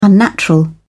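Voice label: "unnatural", British English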